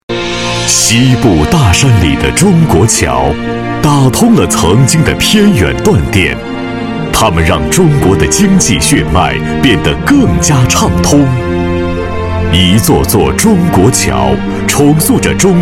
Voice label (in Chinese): 男 宣传 沉稳讲述大气